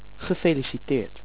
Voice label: happy bi, dutch